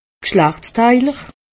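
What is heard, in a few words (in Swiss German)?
"gschlachtsteiler", Haut Rhin; medecine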